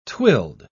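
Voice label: "twilled", English, British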